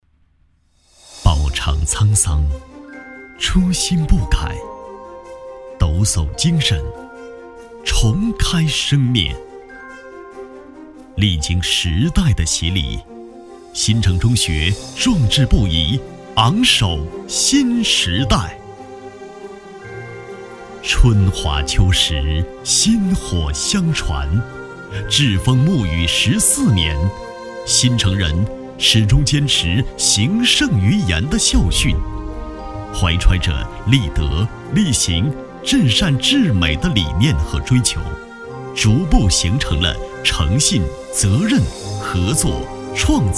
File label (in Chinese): 学校宣传专题磁性浑厚